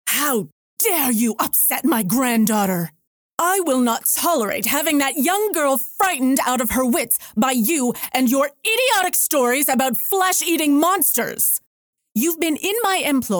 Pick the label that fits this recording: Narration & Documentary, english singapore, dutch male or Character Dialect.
Character Dialect